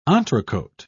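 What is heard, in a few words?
"entrecote", English, British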